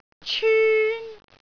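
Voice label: "cyun1", Cantonese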